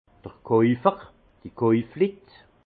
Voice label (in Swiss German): "kaufer", alsace; vin; acheteur